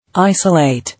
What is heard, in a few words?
"Isolate", English